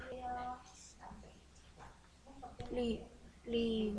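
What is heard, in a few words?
"low", spoken word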